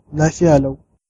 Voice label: fine, hausa